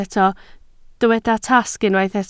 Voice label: "tasg", Welsh